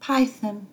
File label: "python", English